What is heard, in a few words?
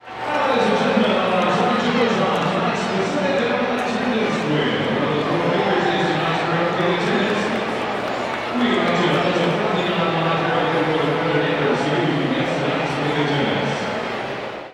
Baseball Crowd Announcer; SFX Kit; Crowds